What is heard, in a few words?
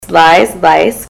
lies lice, ontario